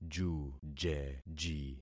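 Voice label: "jujeji", concatenated syllables; Affixing experiment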